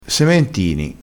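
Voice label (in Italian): "sementini", vocabolario dialettale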